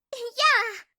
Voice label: "yeah", voice